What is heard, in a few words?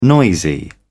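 "noisy", British English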